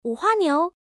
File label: female